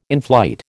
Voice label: "inflight", American

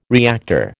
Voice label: "reactor", American

